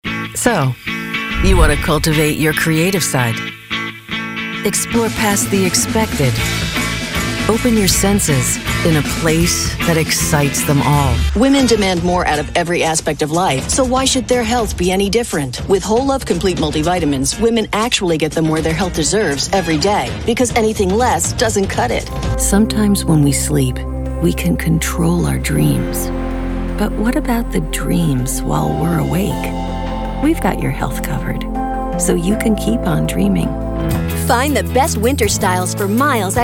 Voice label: COMMERCIAL